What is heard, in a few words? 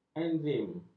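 "enzim", Malay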